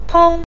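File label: "peng", Mandarin Chinese neutral tone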